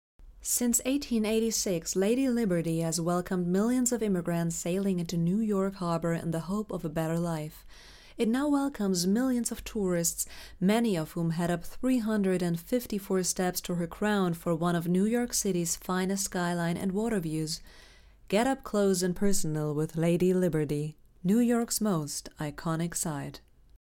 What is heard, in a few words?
DOCUMENTARY US; LADY LIBERTY